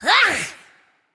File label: Female Naga Attack